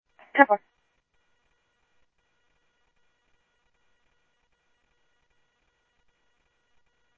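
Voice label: police radio dispatch, ScottsdalePolice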